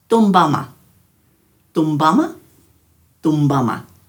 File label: "dhumbama", Dhurga